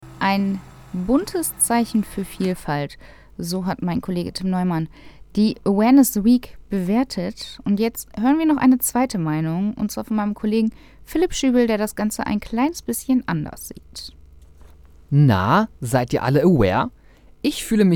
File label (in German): Kommentar